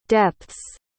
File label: "depths", pronounce